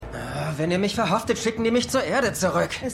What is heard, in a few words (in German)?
Inhaftierter Mann; For All Mankind